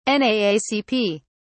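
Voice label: "naacp", British English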